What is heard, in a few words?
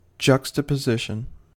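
"juxtaposition", American English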